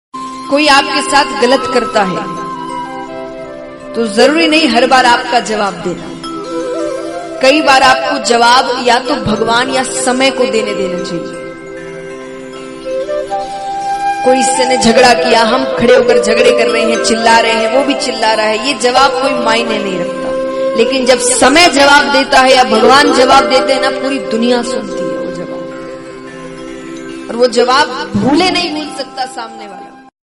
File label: Motivational